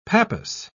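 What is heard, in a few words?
"pappus", British English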